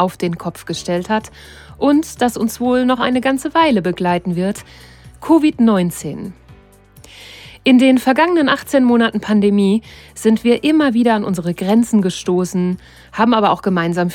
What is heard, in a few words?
Podcast; Roche; Covid19